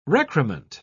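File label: "recrement", British English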